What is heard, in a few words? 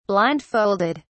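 "blindfolded", British English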